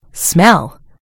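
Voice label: "smell", British English; gcompris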